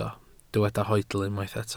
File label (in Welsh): "hoedl", Welsh